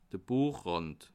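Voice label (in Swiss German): "buechrand", Bas Rhin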